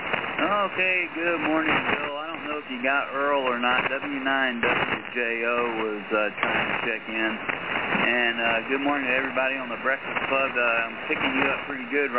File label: SM 50 Mic Comp 3 TBW Wide Bass 2 Treble 4 Low